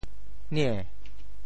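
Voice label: "nie~2", Teochew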